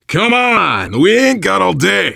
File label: move on, voice, biker; Left 4 Dead 2; survivor